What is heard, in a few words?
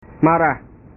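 "marah", Indonesian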